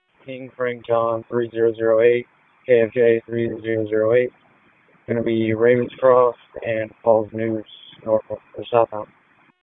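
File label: Raleigh Police Northeast District Dispatch, p25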